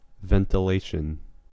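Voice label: "ventilation", American English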